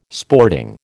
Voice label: "sporting", American